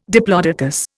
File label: "diplodocus", Indian